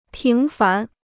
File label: "平凡", Chinese